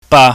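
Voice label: "ba", Cantonese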